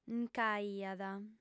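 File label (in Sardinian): "nc'aiat", female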